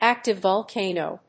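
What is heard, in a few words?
"active volcano", English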